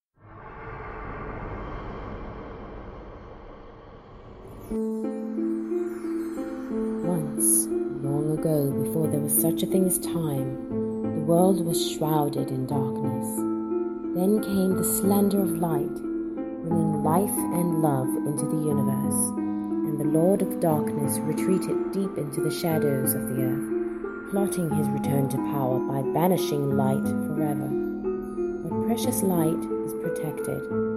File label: Fantasy voice over narrative